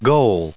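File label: "goal", English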